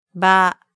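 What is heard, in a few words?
"ba", Japanese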